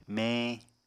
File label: "meñ", Apatani